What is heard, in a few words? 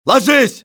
man down, russian soldier; enemy; XBOX